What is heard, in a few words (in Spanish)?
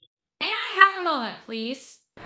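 Voice Acting, Spanish, female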